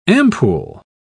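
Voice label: "ampule", British English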